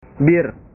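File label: "bir", Indonesian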